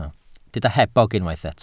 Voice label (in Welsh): "hebog", Welsh